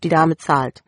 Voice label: pay, german